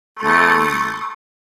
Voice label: sad; Cyberworms

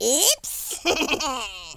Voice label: oops, French Lover; speech

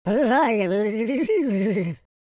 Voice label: scared

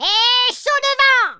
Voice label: fireball, speech, French

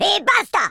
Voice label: go away, speech Italian

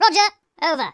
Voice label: "orders", speech; Biggles

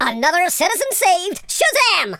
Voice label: brilliant, speech, Super Hero

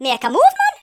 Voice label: hurry, Geordie; speech

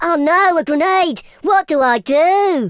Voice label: "grenade", Teenage Angst speech

